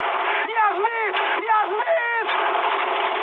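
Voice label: Russian aircraft destroyed mood high, speech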